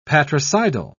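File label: "patricidal", British English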